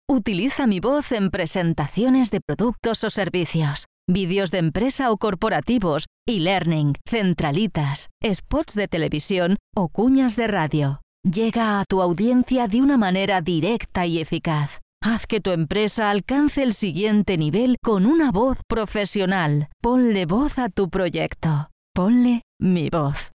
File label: active cheerful